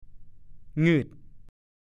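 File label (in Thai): "งึ่ด" ภาษาโคราช; แปลกใจ ประหลาด น่าพิศวง ฉงนสนเท่ห์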